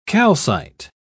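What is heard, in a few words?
"calcite", English, British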